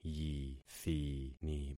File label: "yithini", concat syllables; Affixing experiment